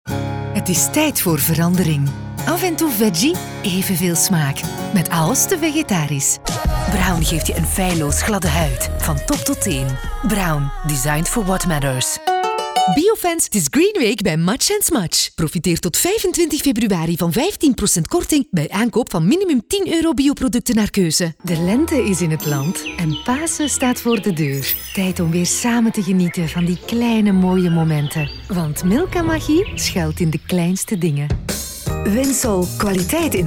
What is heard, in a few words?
commercials